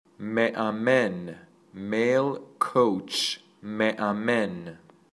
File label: Male Coach